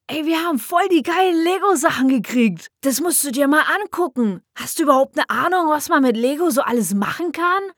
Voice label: Boy DE